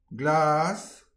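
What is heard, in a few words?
"glas", Breton; petit precis de prononciation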